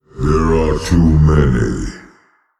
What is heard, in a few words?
Male Old God Error Full Minions